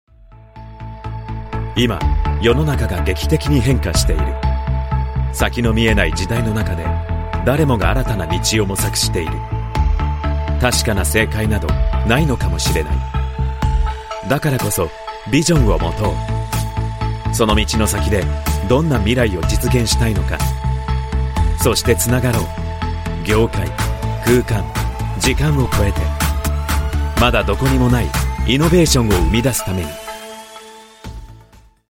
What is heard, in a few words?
Japanese Corporate